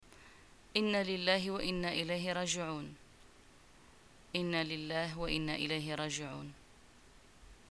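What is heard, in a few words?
greeting death, Moroccan Arabic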